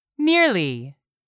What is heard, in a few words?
"nearly", English; 3000 words